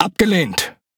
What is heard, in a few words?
Speech Quick Commands No Way, DE; World Of Warships